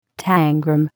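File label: "tangram", English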